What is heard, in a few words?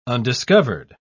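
"undiscovered", British English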